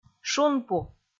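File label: "шунпу", Komi, female; kpv audio dict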